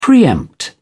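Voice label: "pre empt", English, British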